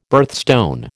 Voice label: "birthstone", American